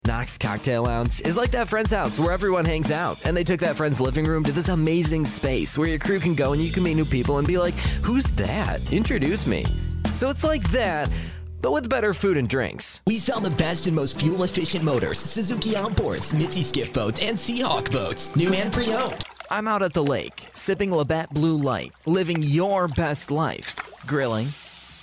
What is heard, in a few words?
Commercial Male Millenneal